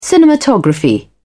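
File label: "cinematography", British English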